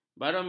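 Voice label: "barometer", Malay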